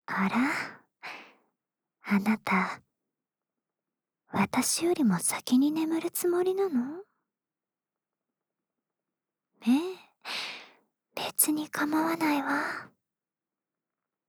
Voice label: ささやきボイス; 私よりも先に眠るつもりなの？; 憂妃の共依存癒やしささやきボイス

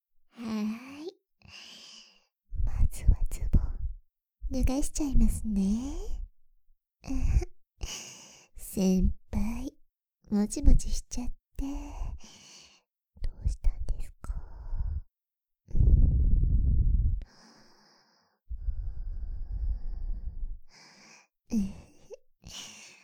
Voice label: 脳じゅわ耳舐め手コキ（脳じゅわ耳舐め・おっぱい見せつけ・乳首責め）, ASMR; 色仕掛け誘惑♪

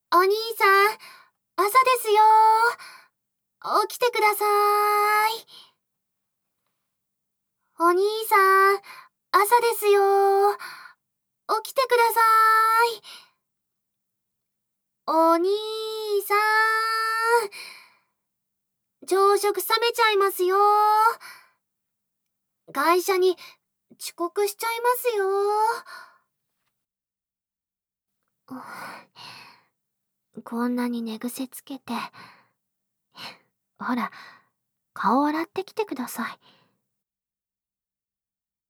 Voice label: 目覚ましアラーム, SEなし; めいちゃんが甘々に起こしてくれる目覚ましアラーム

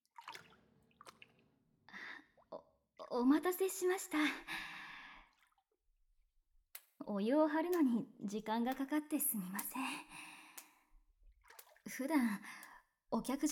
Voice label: お風呂で蕩けるまる洗い（Voice）; 絶対恋愛少女～八雲藍と恋人になる程度の日々～

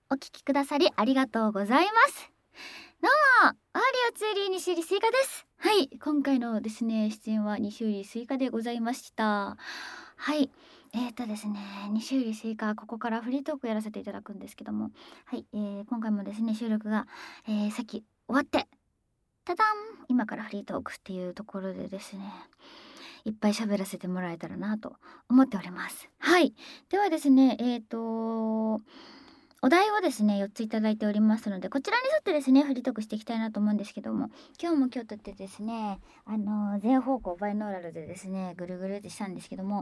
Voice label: フリートーク音声

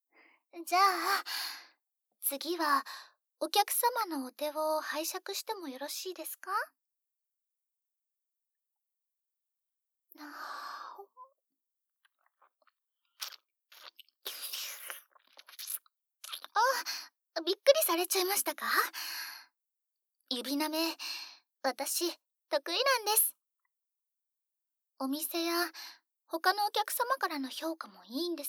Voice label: ゾクゾクペロペロマッサージ, ASMR; セロトニン分泌間違い無し

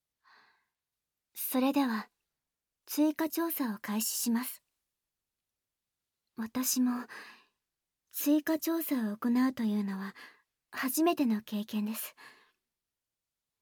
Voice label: シコシコボイスモード; 追加調査

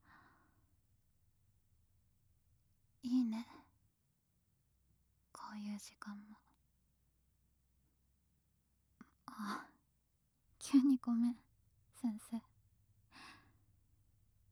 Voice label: フリートーク

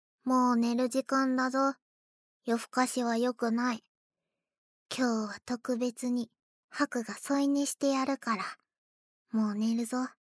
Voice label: シチュエーションボイス

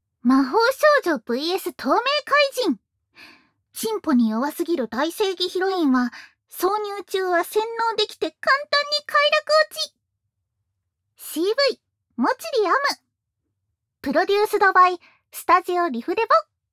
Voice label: タイトルコール, ASMR

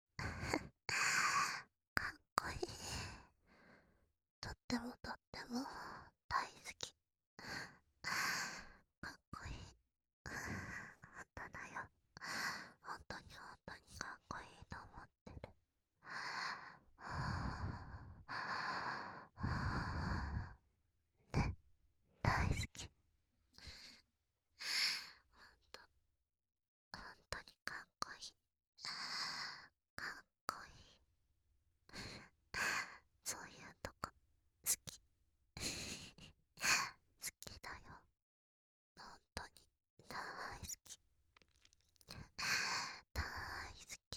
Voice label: 囁き淫語連呼; SEあり

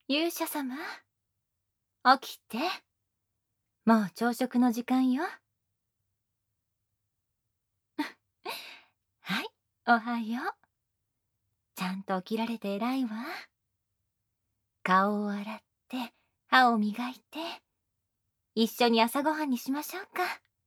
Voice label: 目覚ましボイス; クローディ

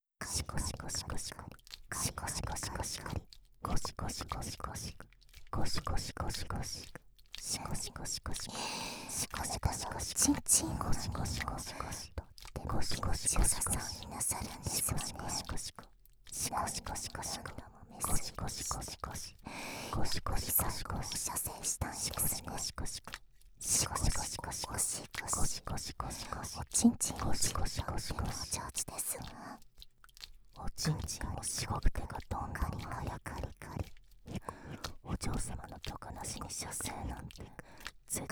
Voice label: カウントダウンで射精誘導, ASMR; オスイキフィニッシュ

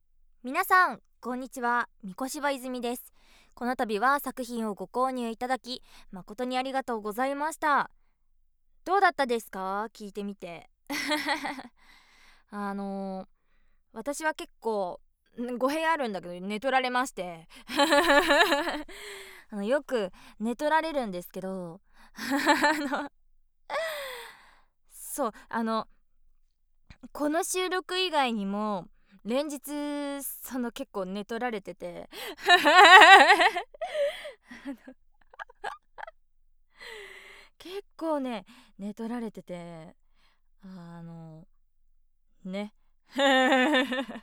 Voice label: フリートーク

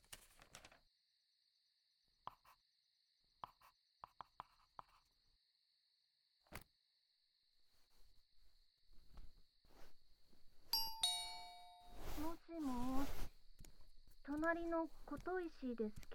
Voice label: 関西弁と耳かき

